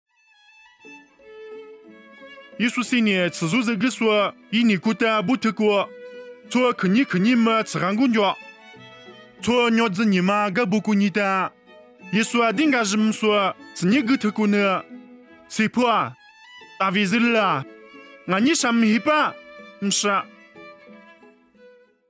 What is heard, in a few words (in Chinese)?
凉山彝语; 以色列耶利哥; nuosu